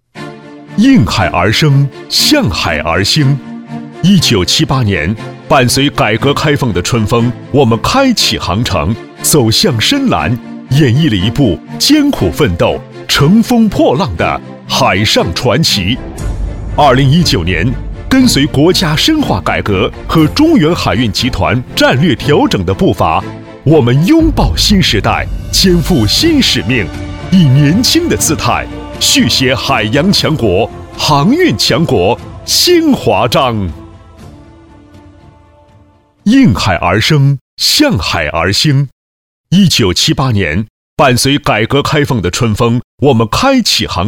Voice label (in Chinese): 男 大气积极